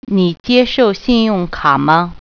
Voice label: "credit", Mandarin

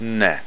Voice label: "yes", Greek

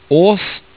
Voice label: "Ås", Norwegian

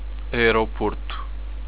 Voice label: airport, europortuguese

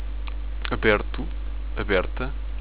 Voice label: open, europortuguese

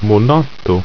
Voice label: "monato", ido

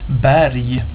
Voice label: "berg", swedish

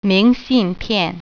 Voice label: "postcard", Mandarin

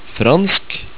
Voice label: "fransk", Norwegian

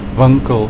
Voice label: "winkel", Afrikaans

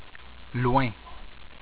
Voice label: "loin", french

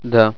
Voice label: "yes", russian

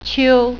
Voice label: "fall", Mandarin

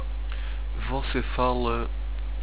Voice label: do U speak, europortuguese

